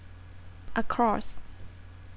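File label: "across", Thai, female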